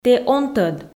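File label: "тэ он тӧд", Komi, female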